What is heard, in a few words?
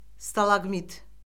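"stalaqmit", Azerbaijani; Orfoqrafiya Lüğəti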